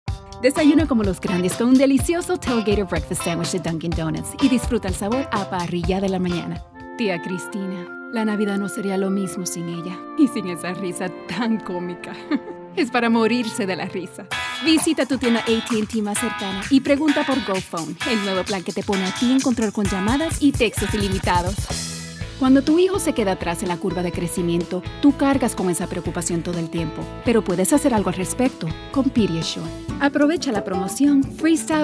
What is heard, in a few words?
Spanish